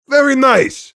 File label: mobster positive vocalization